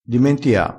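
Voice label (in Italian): "dimentia", vocabolario dialettale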